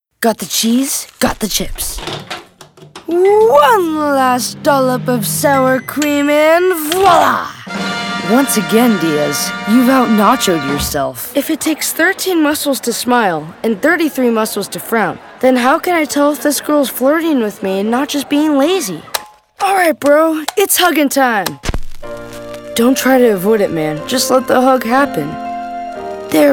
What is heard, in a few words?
kids animation